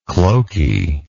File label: "cloky", English